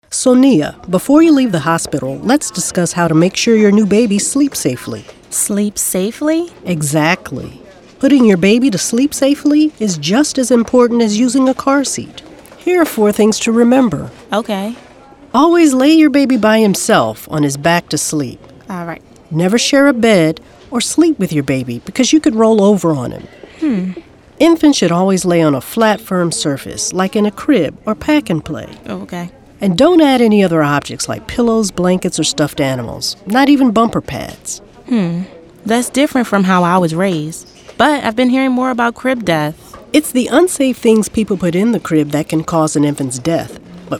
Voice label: SIDS Radio Ad Nurse Visit